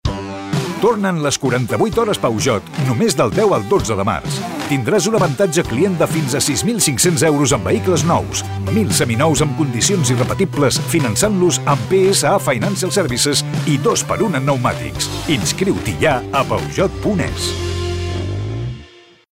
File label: male spanish auto comercial; ideasonora